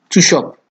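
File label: "tush up", Nigerian Pidgin, male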